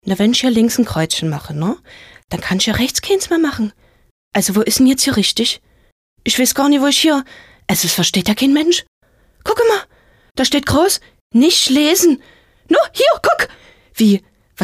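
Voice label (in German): versteh nicht sächsischer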